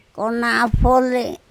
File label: "konapHole", Andamanese; fruit